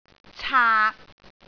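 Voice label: "caak6", Cantonese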